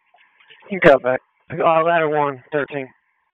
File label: FIRE OPS, p25